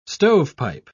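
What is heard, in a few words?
"stovepipe", British English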